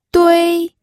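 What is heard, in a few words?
"dui", pinyin, first tone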